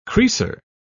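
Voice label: "creaser", English, British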